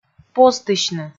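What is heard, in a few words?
"позтысьны", Komi, female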